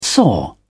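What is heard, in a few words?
"soar", British English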